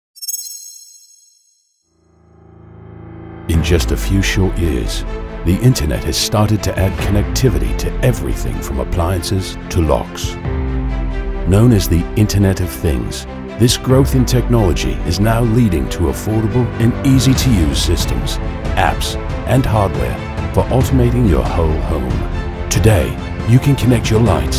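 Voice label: UK male Promotional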